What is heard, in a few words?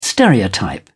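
"stereotype", British English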